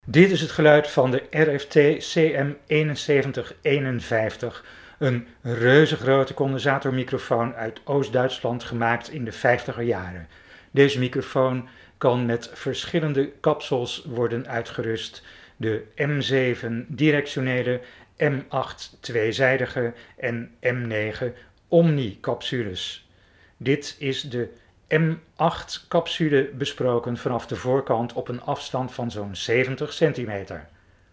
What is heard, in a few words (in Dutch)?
RFT CM7151 sound NL